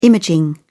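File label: "imaging", British English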